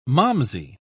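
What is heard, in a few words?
"malmsey", English, uk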